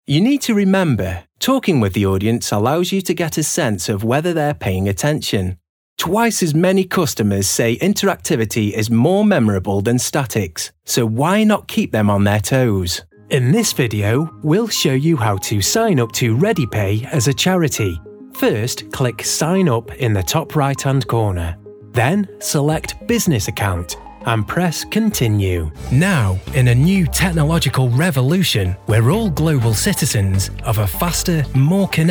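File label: Corporate, British Male Voice Over; Corporate Showreel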